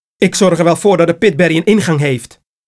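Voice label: Bonus Dutch; wii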